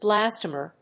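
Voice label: "blastomere", English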